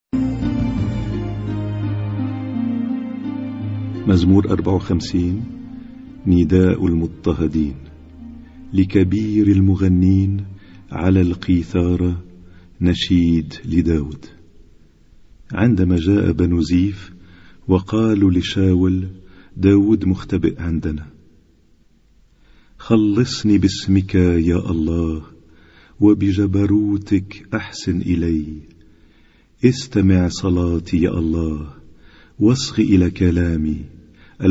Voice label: Arabic Psalm Zaboor